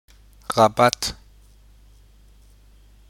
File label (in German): "Rabatt", de